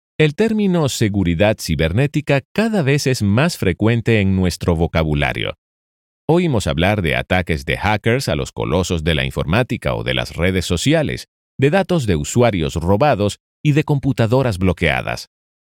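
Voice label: Latin American Spanish e-learning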